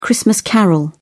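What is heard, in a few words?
"christmas carol", British English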